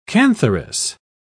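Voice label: "cantharis", British English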